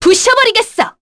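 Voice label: Vox Skill, Korean; Naila; kingsraid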